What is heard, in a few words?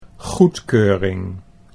"goedkeuring", Dutch